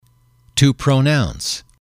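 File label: "to pronounce", English, male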